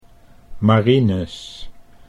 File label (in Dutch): "Marinus", Dutch